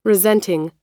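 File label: "resenting", American English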